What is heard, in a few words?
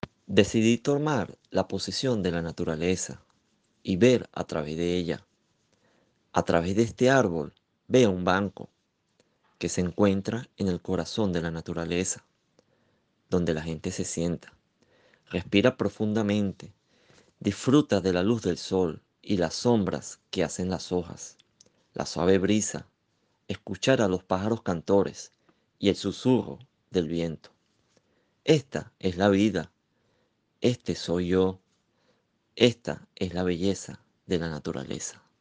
Poema SPA